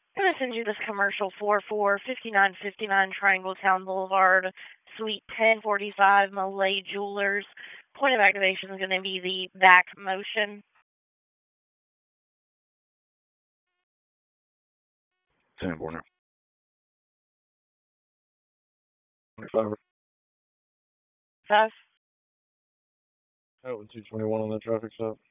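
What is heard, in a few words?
Raleigh Police Northeast District Dispatch; p25; wake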